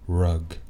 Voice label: "rug", anglais; household objects

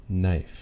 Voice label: "knife", anglais; household objects

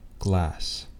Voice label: "glass", anglais

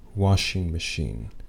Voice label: "washing machine", anglais

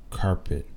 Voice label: "carpet", anglais; household objects

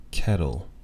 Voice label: "kettle", anglais

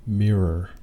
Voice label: "mirror", anglais

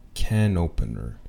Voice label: "can opener", anglais

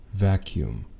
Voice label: "vacuum", anglais

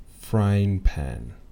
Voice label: "frying pan", anglais

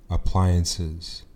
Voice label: "appliances", anglais; household objects

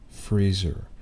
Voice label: "freezer", anglais

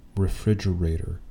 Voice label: "refridgerator", anglais; refrigerator

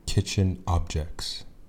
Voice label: "kitchen objects", anglais; household objects

